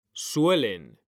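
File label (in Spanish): "suelen", Spanish; mekanta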